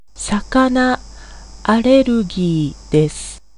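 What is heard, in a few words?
Japanese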